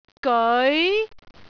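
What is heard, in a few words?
"geoi2", Cantonese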